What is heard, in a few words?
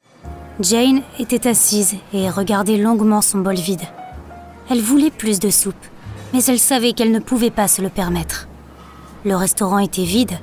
Audiobook